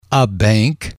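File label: "a bank", English, male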